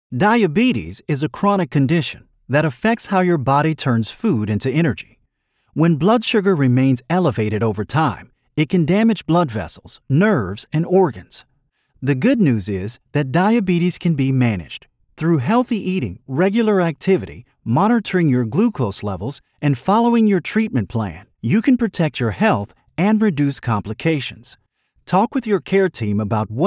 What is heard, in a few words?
Medical Narration; Clear Communication.